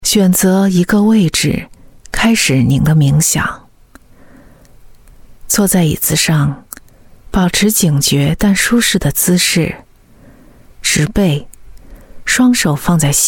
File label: chinese voice over female narrator